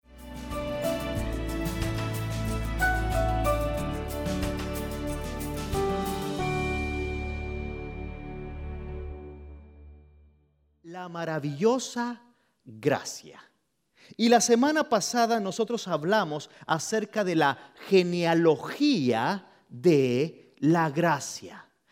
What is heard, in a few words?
Spanish Sermon